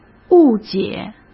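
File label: "误解", Mandarin Chinese; chinese-flashcards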